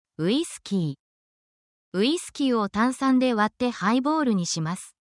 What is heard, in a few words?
japanese beverage phrases